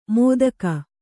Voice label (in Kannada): "ಮೋದಕ", Kannada